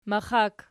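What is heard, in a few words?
"maxak" nominative, Archi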